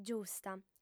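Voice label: "giusta", female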